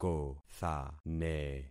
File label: "kothane", concat syllables; Affixing experiment